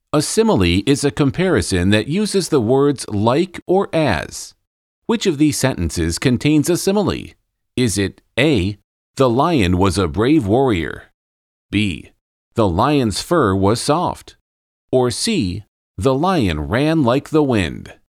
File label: English Grammar Pre-Teen; Lakeshore